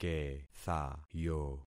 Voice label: "kethayo", concat syllables; Affixing experiment